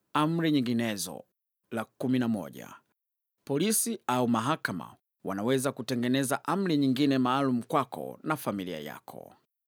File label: swahili